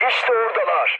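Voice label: Turkish enemy detected, mood low, speech; War Thunder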